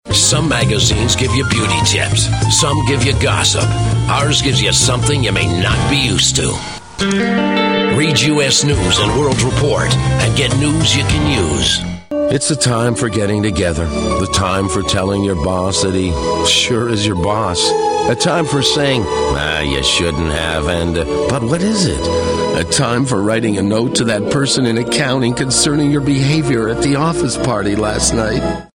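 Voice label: English USA male, english american voice over talent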